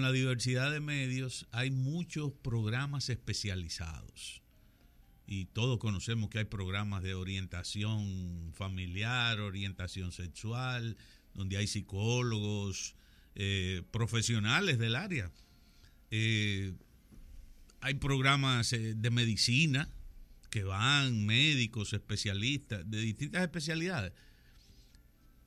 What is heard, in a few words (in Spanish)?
LOCUTOR; locucion profesional